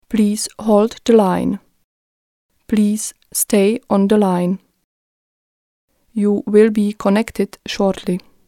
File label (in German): englisch tschechisch, Telefonansagen, weiblich; englisch-tchechisch; Sprecherin